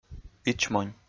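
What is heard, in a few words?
"ичмонь", Komi, male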